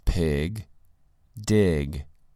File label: "pig" "dig"